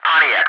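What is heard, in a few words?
"Pontiac", English, ATC callsign; DCS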